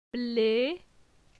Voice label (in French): "Ble", phonetique; la syllabe e